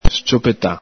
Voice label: "sciopeta", Veneto